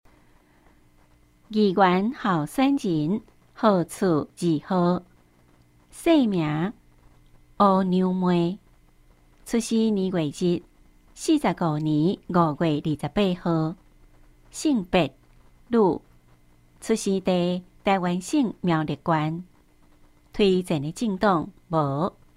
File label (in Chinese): 台語; 苗栗縣; 有聲公報; 縣議員; 第6選舉區